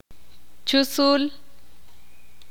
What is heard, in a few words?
"maestro", Ixil